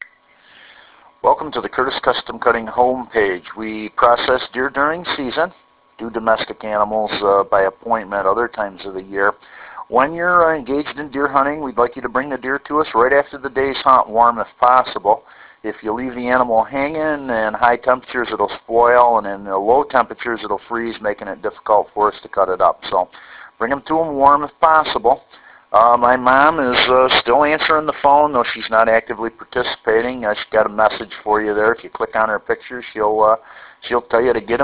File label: Comercial